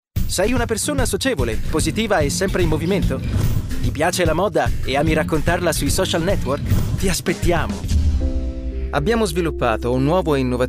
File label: italian male commercial